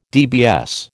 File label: "DBS", American